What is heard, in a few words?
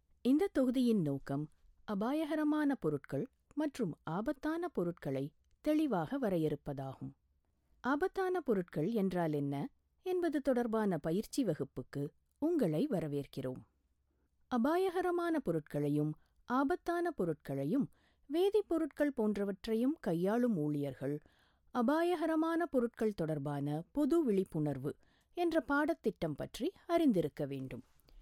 Tamil Corporate Training